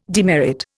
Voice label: "demerit", Indian